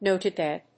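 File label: "notepad", English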